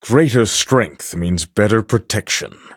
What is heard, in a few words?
level up, en